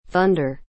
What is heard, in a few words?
"thunder", english